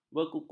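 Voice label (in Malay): "bekukung", Malay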